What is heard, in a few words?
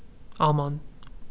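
"almond", Canadian, male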